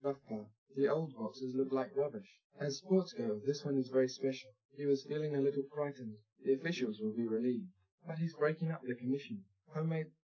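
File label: male speech; SiSEC10; underdetermined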